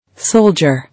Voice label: "Soldier", English